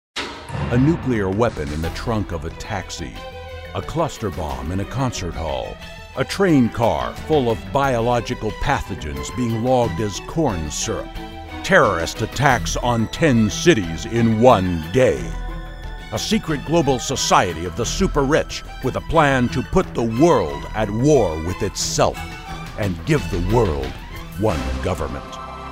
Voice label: Movie Trailer